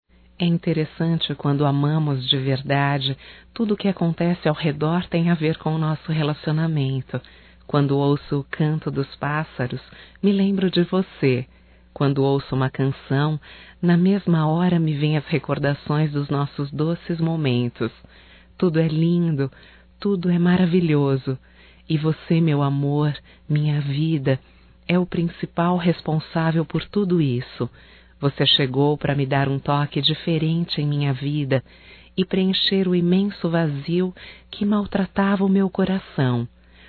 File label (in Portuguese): ROMANTICA(F), Românticas, fem